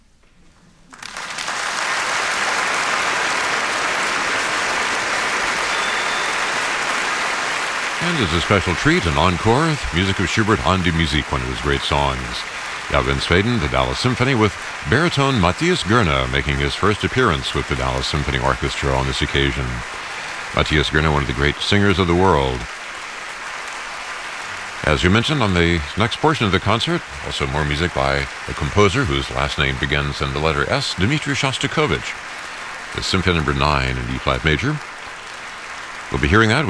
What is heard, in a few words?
Announcer; DallasSO 2012-03-15 fm-hd